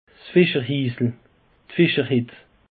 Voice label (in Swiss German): "fischerhiisel", alsace; peche